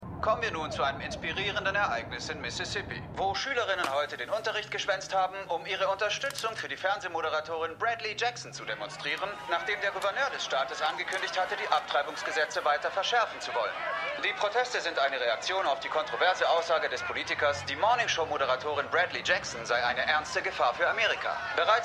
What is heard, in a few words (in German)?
Nachrichtensprecher Nachrichtensprecherin; THE MORNING SHOW (DF 2019)